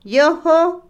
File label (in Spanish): Otomí, mujer; dos; INALI